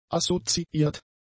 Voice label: "assoziiert", German; adjectives